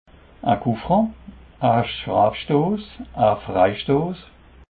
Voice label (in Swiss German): "coufran", alsace